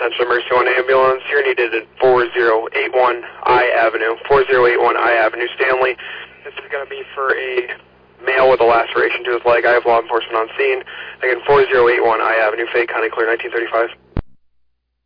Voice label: Oelwein Ambulance, dispatch